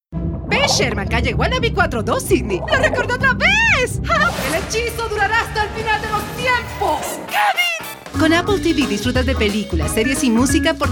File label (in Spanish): COMERCIAL